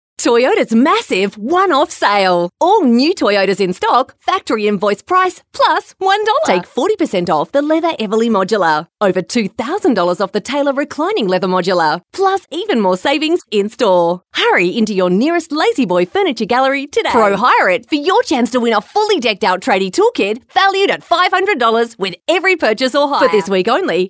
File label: Hard Sell